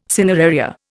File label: "cineraria", Indian